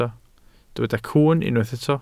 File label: "cwwn", Welsh